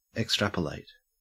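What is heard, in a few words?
"extrapolate", Australian English; pronunciations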